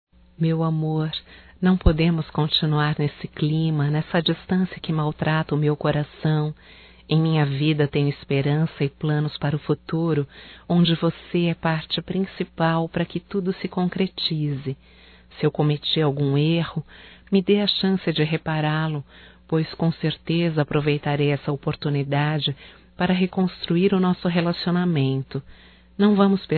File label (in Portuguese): RECONCILIAÇÃO (F), Telemensagens Românticas fem